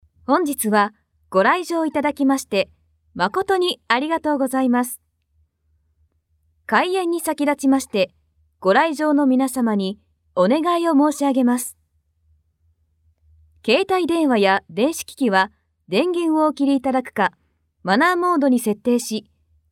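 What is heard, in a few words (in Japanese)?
ナレ 陰ナレ, ボイスサンプル; 【ナレーション】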